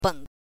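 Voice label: "beng", Mandarin pinyin, neutral tone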